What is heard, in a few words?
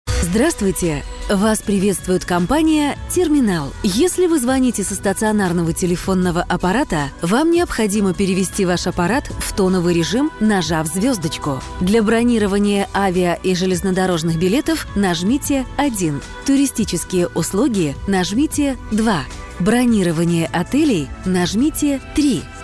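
interactive voice response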